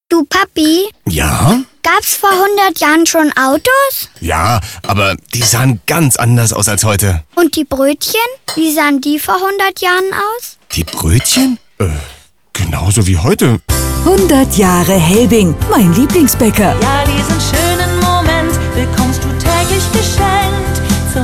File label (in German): Funkspot; Helbing